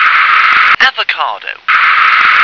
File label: "avocado", English